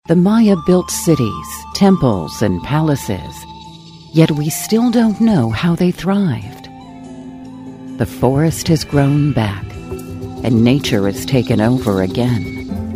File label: Docu